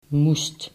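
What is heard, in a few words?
"moest", Dutch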